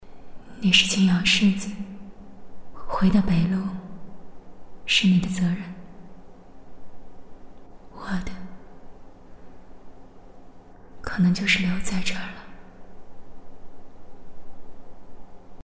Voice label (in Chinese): 女 角色 古风女完全混饱满